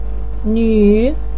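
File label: "nü3", Mandarin pinyin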